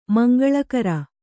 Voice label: "ಮಂಗಳಕರ", Kannada

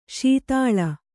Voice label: "ಶೀತಾಳ", Kannada

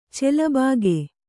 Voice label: "ಚೆಲಬಾಗೆ", Kannada

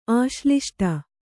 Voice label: "ಆಶ್ಲಿಷ್ಟ", Kannada

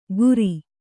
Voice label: "ಕುರಿ", kannada

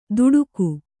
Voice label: "ತುಡುಕು", kannada

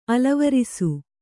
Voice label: "ಅಲವರಿಸು", Kannada

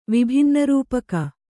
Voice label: "ವಿಭಿನ್ನರೂಪಕ", Kannada; vibhinna rūpaka

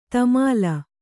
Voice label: "ತಮಾಲ", Kannada

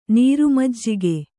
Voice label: "ನೀರುಮಜ್ಜಿಗೆ", Kannada

